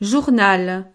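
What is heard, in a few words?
"journal", French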